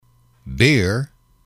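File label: "beer", male, English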